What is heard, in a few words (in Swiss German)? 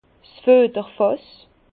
"fueterfass", Bas Rhin